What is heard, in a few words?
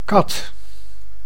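"kat", Dutch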